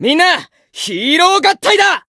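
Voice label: Voice limitbreak Japanese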